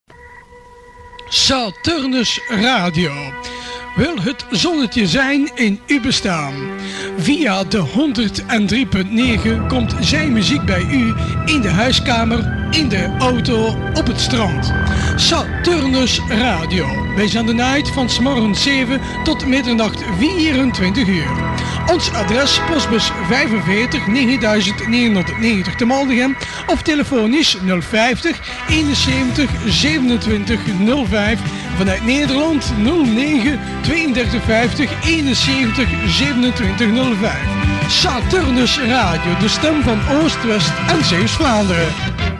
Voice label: Jingle; RS Saturnus